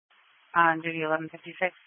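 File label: Police Patrol Dispatch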